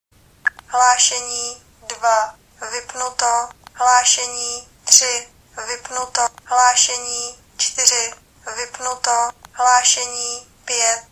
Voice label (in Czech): automatická hlášení; mluvík